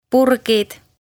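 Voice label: "Purgid", Estonian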